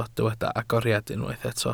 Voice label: "agoriad", Welsh

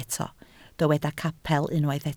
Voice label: "capel", Welsh

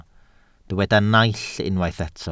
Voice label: "naill", welsh

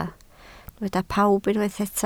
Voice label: "pawb", Welsh

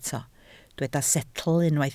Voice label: "setl", welsh

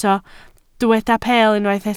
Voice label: "peel", Welsh

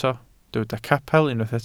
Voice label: "capel", Welsh

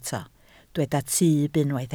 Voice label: "tyb", Welsh

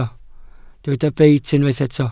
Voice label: "beit", Welsh

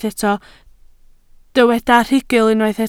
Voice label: "rhugl", Welsh

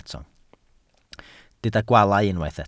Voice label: "gwaalau", welsh

